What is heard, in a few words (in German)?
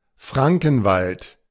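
"Frankenwald" German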